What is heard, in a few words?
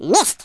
revenge, Speech, Russian